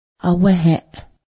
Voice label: flower, Cayuga; awę́hęˀ

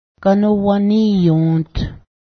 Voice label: lock, Cayuga; ganowaní:yǫ:t

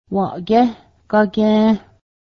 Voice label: here now let me sit, Cayuga; waˀgyę́h gágyę

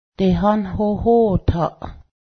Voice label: goalie, Cayuga; dehanhohó:taˀ

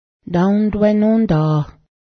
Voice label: call on the phone, Cayuga; daǫdwenǫ́da

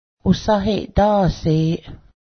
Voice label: green beans, Cayuga; osaheˀdá:seˀ

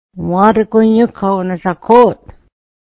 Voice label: can i take your coat, mohawk

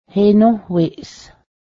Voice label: i like him, Cayuga; henǫ́hweˀs

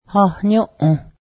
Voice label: Englishman, cayuga; hahnyǫ́ˀǫh

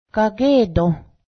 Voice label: grate, Cayuga; gagé:dǫh; grate (scrape or grind)

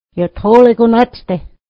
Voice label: is it cold outside, mohawk

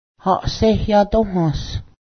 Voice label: letter to her, Cayuga; haˀsehyadǫ́hahs

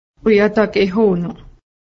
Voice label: cherokee people, Cayuga; Oyadˀagehó:nǫˀ

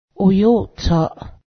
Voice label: jaw, Cayuga; oyoˀtsaˀ

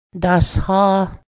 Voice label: bring, Cayuga; dáhsha; bring (v.)

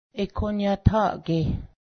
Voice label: "kitchen", Cayuga; ekǫnyatáˀgeh

